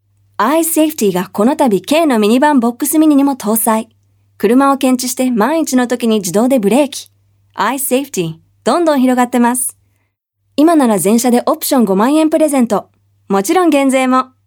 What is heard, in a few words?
Japanese Upbeat, Japanese Female